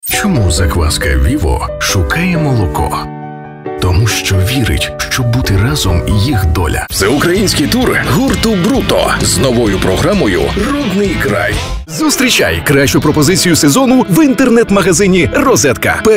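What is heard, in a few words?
Ukrainian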